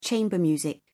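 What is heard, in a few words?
"chamber music", British English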